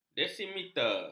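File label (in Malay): "desimeter", Malay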